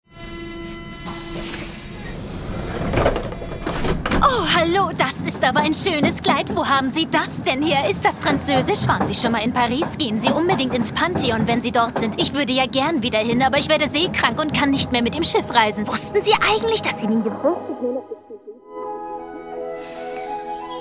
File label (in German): Werbung; Silento